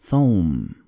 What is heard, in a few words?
"Sawm", Arabic